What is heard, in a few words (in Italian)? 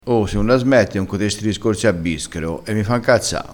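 "discorsi a bischero", vocabolario dialettale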